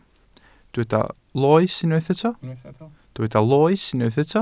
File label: "loes", Welsh